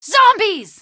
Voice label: zombies, female; Source Engine; video game; npc

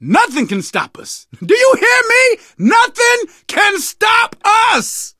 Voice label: hurrah, survivor voice

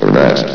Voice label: "arrest", vox; Source Engine; bshift

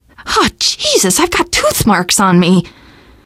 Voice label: going to die light, teen girl; survivor voice

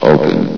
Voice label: "open", vox; Source Engine; bshift

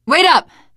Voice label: wait here, teen girl voice; source engine; left 4 dead 2; survivor

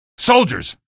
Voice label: alert soldier, male; video games; npc; source engine; episodic; episode 1

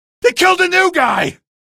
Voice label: buddy killed, male; video games; npc; source engine; episodic; episode 1

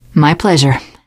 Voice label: you are welcome, teen girl; voice; source engine; left 4 dead 2; survivor

